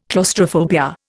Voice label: "claustrophobia", Indian